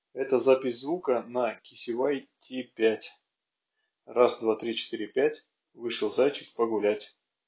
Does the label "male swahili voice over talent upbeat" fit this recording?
No